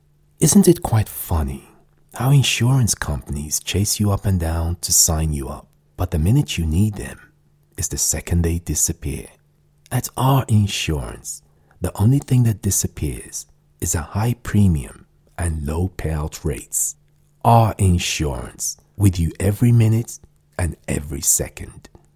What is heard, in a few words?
Conversational